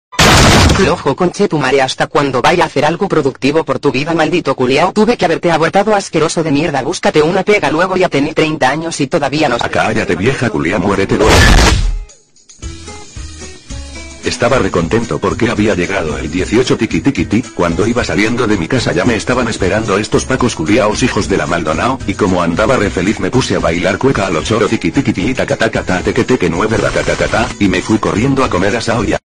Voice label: spanish skeleton argument